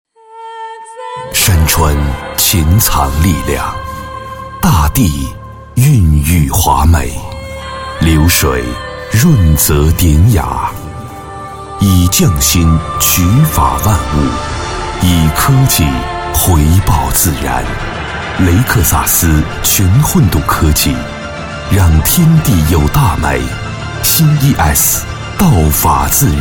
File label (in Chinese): 广告 汽车 大气; 雷克萨斯LEXUS